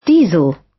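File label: "diesel" British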